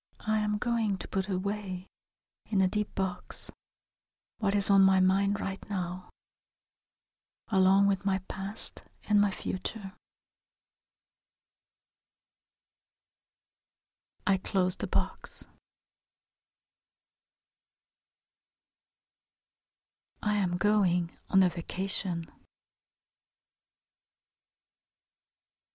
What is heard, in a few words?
ocean en voice